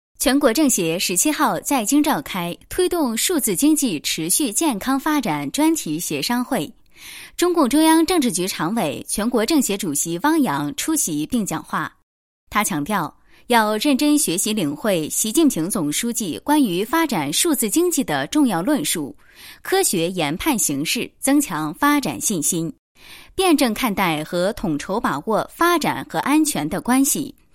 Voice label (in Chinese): 女 新闻播报